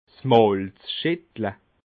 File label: "malzschettle", alsace